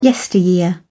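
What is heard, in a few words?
"yesteryear", British English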